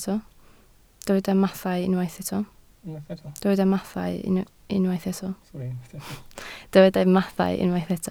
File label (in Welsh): "mathau", Welsh